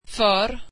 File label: swedish for